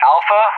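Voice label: "Alpha", English; JTAC; Alphabet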